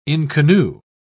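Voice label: "inconnu", British English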